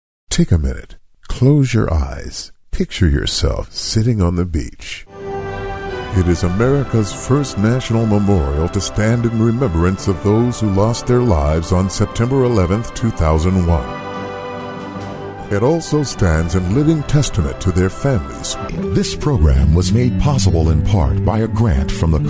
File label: Voice over; Voice Over Demo Audio Montage